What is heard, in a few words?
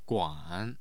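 "guan", Mandarin Chinese, tone 3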